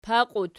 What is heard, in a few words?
"paqiut", Archi